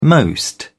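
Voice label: "most", British English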